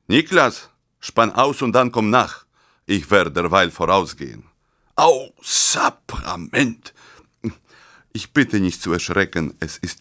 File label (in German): deutsch